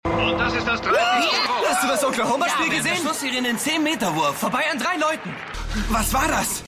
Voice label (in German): TV Sprecher Junger Mann